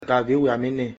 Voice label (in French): "soixante-seize", fongbe; nombres